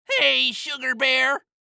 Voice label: Cartoon Voice Goofy; Hey Sugar Bear; AdobeCC